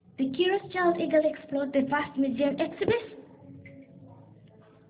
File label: surprised